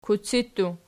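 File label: "kuci" plural, Archi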